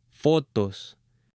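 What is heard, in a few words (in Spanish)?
"FOTOS" Spanish; AppLenguaje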